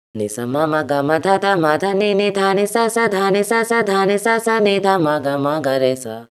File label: voice, pitchshift stretch -12; ikm sample tank